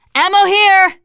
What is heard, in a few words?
spot ammo, teen girl; player; survivor; voice